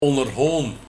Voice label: "Onnerhoon", Onderhouden

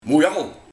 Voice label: "Moeijal", Iemand die zich overel mee bemoeit

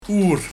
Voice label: "Oer", Oor

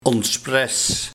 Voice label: "Onspres", Moedwillig

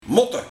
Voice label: "Motte", Een pak slaag geven; 2. Moeten

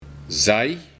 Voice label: "Zaj", de Buiting; Zeef

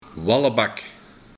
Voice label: "Wallebak", Losbandig persoon

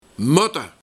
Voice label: "Mutte", Nuchter kalf; 2. Onvolwassen volwassen persoon

